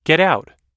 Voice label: "get out", US English